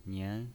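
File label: "nian", pinyin voice, Mandarin Chinese, second tone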